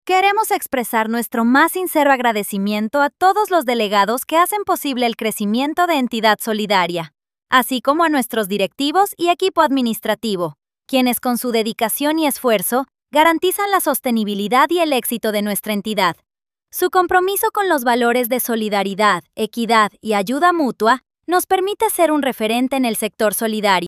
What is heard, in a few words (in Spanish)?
femenino; asamblea; ¡Hablemos de tu informe de gestión!